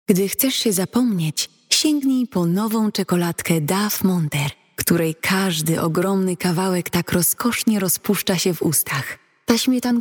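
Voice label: commercial polish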